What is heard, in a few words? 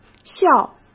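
"笑", Mandarin Chinese; hsk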